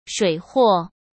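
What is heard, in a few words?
"水货", Mandarin Chinese; hsk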